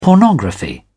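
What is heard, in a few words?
"pornography", British English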